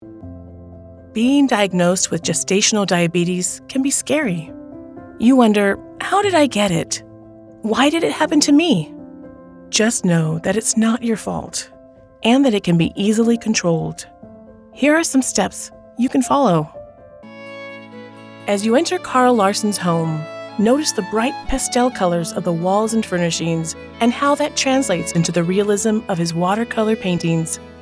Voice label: Narration